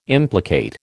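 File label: "implicate", American English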